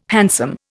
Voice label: "handsome", Indian